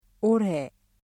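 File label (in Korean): "올해", Korean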